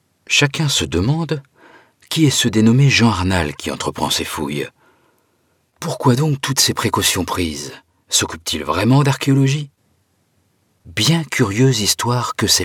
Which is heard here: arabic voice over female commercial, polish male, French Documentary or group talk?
French Documentary